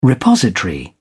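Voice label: "repository", British English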